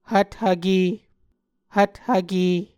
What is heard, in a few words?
"hvthvke", Muscogee